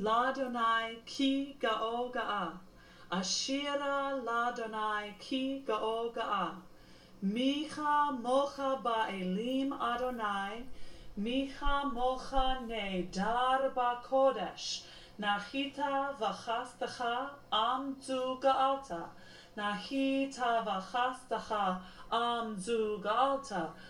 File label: hebrew pronunciation